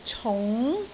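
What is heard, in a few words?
"cung2", Cantonese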